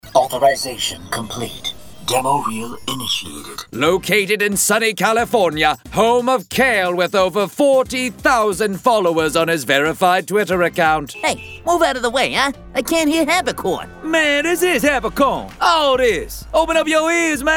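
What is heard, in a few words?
animation men character, voices men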